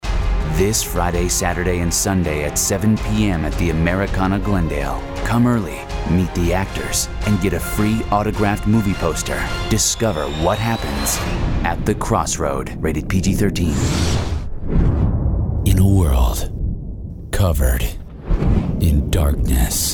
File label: Male, promos